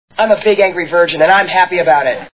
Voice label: big angry